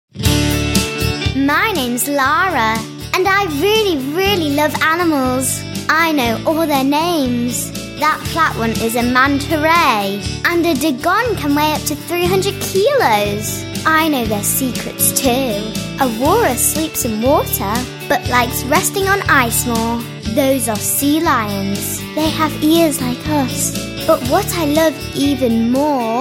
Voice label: daughter 10

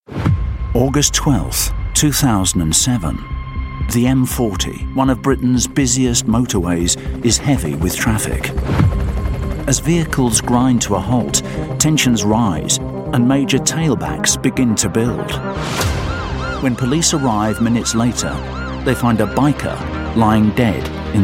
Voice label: narrative continuity

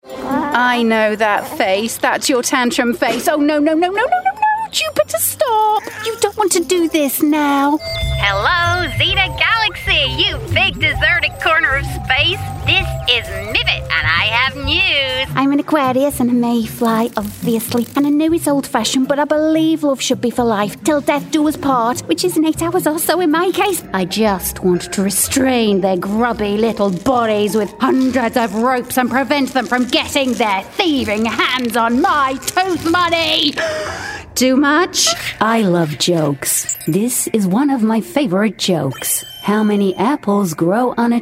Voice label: character, Experienced British Voiceover

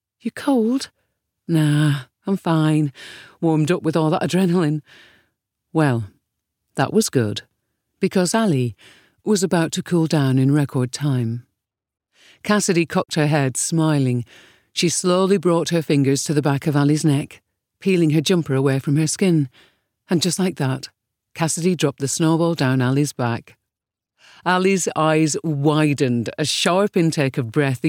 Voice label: audiobook northern, Lancashire accent

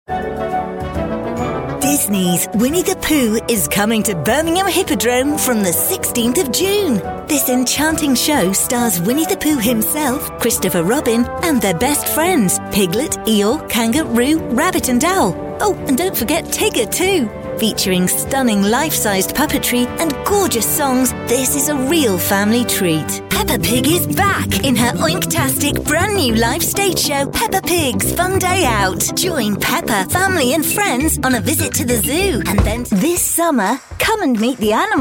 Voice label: children's ads

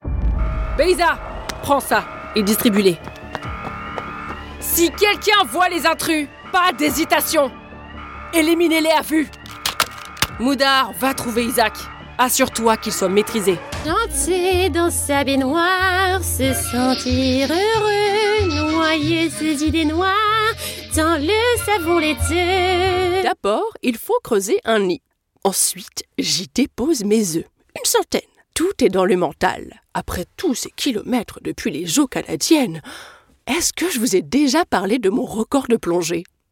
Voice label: french campaign voice character

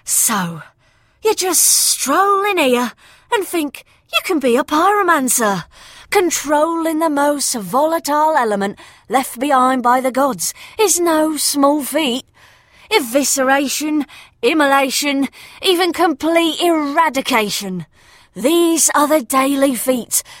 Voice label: cockney guild leader

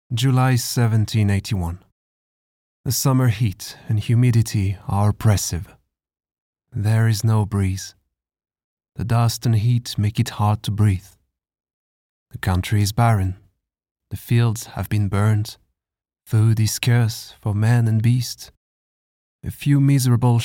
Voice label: french male french soldier english

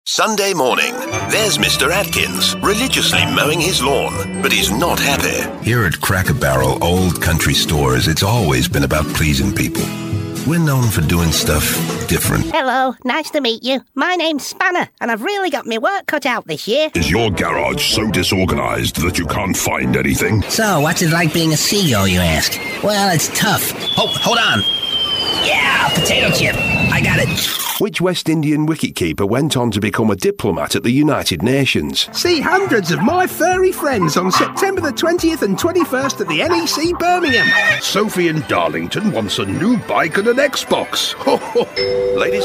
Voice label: character commercial, Upbeat Dynamic Voice

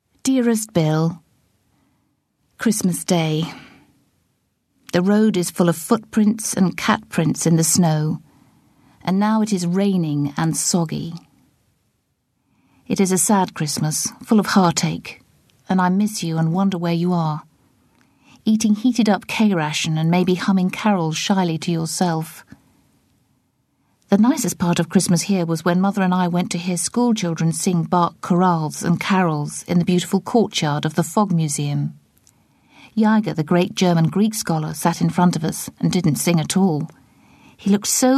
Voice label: acting, Reassuring, empathetic voice

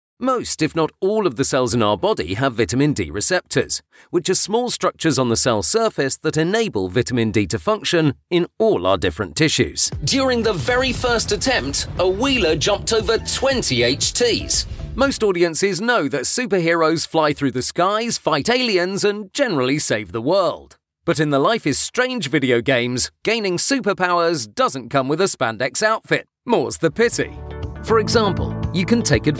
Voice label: corporate

